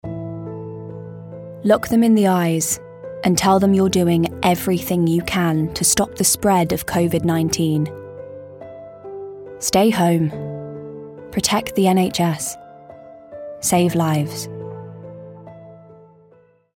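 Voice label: English UK, corporate; GoLocalise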